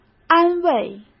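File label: "安慰", Mandarin Chinese; chinese flashcards; hsk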